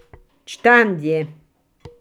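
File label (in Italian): "pentola", Italian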